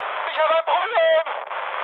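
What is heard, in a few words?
German aircraft damaged mood high, speech; War Thunder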